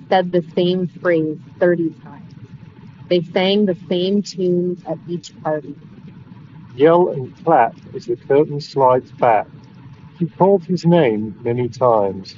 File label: OnePlus Nord Buds Wind microphone sample